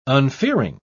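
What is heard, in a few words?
"unfearing", English, uk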